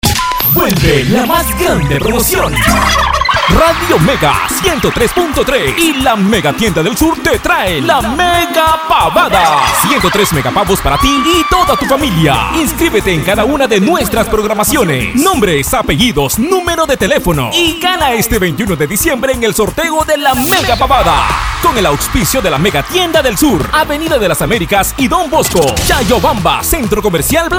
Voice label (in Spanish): PROMOCIONAL; MEGAPAVADA 2022